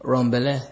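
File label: "run belle", Nigerian Pidgin, male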